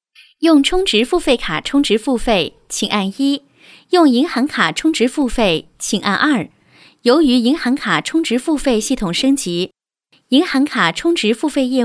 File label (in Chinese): 多媒体 广播提示 银行提示音